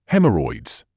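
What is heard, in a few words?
"haemorrhoids", British English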